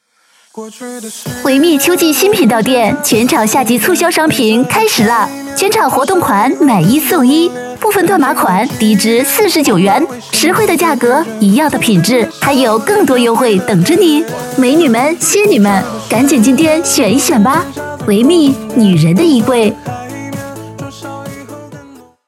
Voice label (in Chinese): 女 促销; 维密